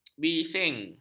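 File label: "bising", Malay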